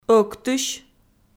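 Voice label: "ӧктысь", Komi-Zyrian, female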